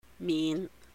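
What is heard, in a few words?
"míhn", Cantonese; exempt; 免; holidays and festivals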